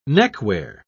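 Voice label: "neckwear", British English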